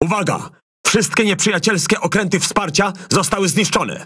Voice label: KILL ALL VESSELS COMPLETED, Languages PL; World Of Warships

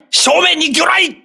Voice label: voice message torpedo, crew ships japanese

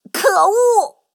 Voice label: Speech Quick Commands Caramba

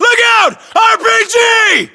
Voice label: threat rpg, US

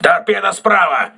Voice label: voice message torpedo right, crew ships russian

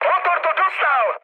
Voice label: damaged engine, speech cze, mood med; War Thunder

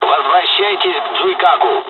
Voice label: mission fly, dialogs russian; War Thunder

